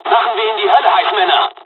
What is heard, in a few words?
dialog, dialogs german; War Thunder; midway